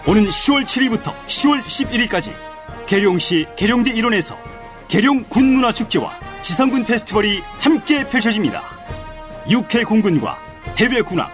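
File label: Korean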